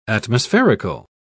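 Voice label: "atmospherical", English, uk British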